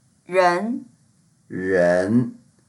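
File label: "ren2", Mandarin Chinese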